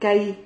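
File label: "cahi", Spanish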